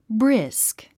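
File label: "brisk", English word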